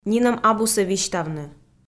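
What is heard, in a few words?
"нинӧм абусӧ висьтавны", Komi, female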